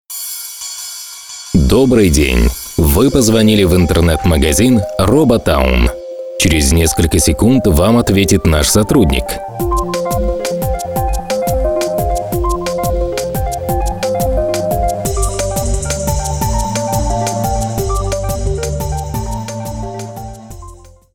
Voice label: Russian Voice